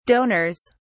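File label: "donors", British English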